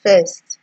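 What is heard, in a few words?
"first", Nigerian Pidgin, female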